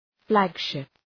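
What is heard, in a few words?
"flagship", English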